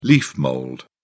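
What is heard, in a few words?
"leaf mould", English, British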